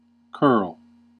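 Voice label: "curl", US English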